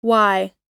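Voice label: "why", English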